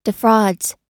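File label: "defrauds", American English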